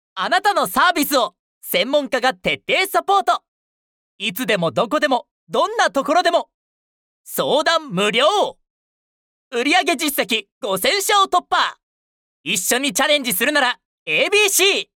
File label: narration man; 快活